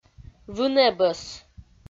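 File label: "вын-эбӧс", Komi, female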